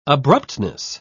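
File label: "abruptness", English, British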